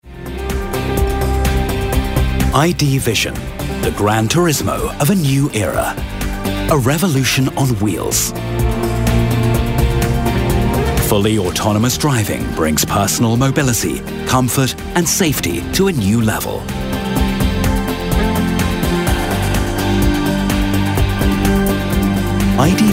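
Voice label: British English, Cool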